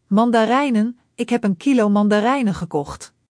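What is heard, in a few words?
"mandarijnen", Dutch; Spelling Gr6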